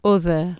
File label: "other", Anglo Saxon